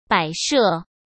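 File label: "摆设", Mandarin Chinese; chinese flashcards; hsk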